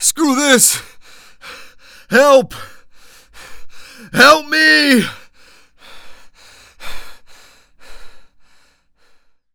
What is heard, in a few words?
Panic; zps; Humans; HumanPunk